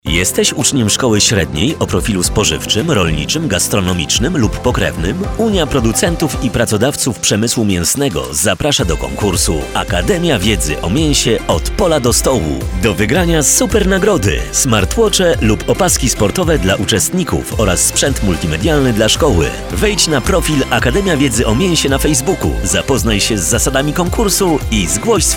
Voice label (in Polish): Spot radiowy